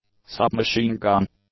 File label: "submachine gun", english; nouns